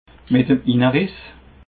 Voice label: "meteminneriess", alsace